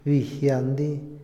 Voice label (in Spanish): "testigo", Otomí, hombre; INALI